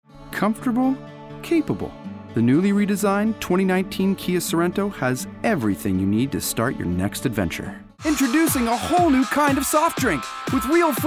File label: Commercial